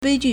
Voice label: "bei1ju4", Chinese